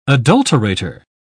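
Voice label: "adulterator", British English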